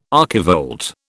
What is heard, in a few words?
"archivolt", British